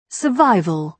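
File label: "survival", British English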